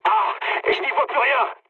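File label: searchlite, mood high, French; War Thunder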